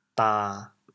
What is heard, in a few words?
"dan1", chaoyang